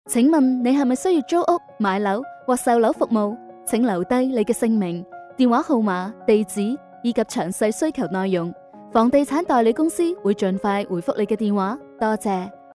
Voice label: Chinese Cantonese IVR